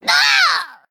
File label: Female Imp Death